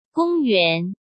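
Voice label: "公元", Mandarin Chinese; chinese-flashcards; hsk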